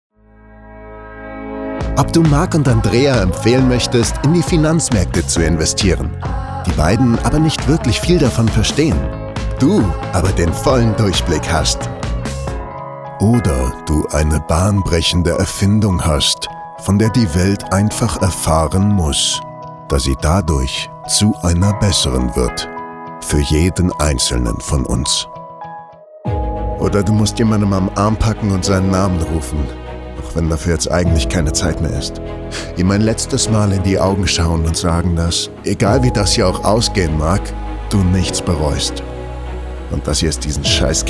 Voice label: Presenter